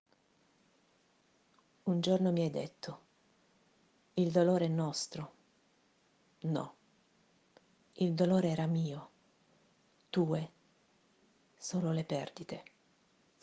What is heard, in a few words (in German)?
Italienisch